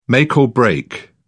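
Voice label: "make or break", British English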